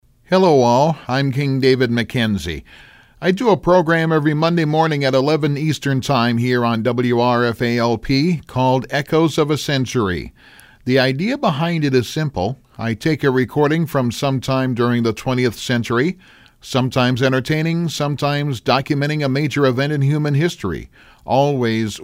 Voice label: promo; wrfa-lp